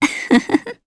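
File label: Vox Happy, Japanese; Valance; kingsraid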